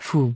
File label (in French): "Bishop", French; fou